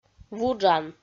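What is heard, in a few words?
"вуджан", Komi, female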